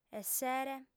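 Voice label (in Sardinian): "essere", female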